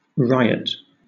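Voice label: "riot", British English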